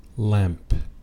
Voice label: "lamp", anglais; household objects